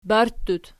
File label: "barttut", Archi